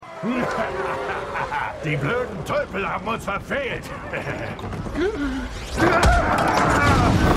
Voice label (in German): Orc, Stimmproben; DER HERR DER RINGE: DIE RINGE DER MACHT; The Lord of the Rings